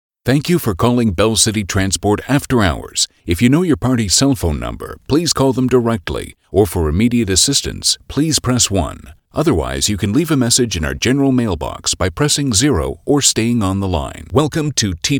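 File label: IVR, male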